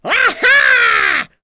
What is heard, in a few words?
Lakrak Vox Happy, voices heroes en; kingsraid-audio